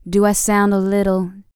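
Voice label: female spoken; ILIO; Vocal Planet VOL-4; R&B & Dance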